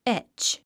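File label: "etch", English; 発音 étʃ エェッチ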